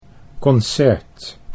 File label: "concert", Dutch